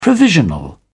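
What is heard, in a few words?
"provisional", British English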